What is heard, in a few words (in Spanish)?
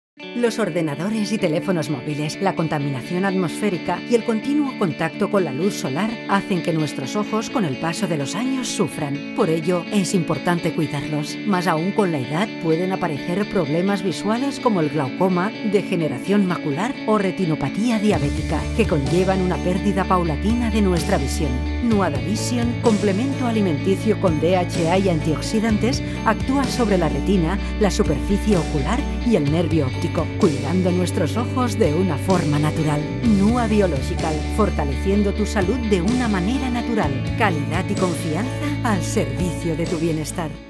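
generico España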